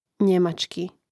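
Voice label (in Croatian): "njemacki", Hrvatski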